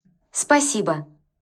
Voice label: thank you russian; turkey dash